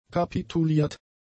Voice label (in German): "kapituliert", German; adjectives